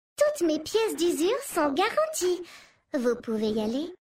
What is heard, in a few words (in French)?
Femme Flirt; Mecagnome; Garantie